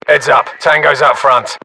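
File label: threat infantry generic, battlechatter British; localized english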